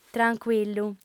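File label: "trancuillu", Sardinian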